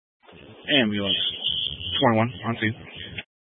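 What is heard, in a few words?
Fire Dispatch, Scanner audio recording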